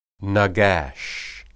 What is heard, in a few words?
"nagash", Hebrew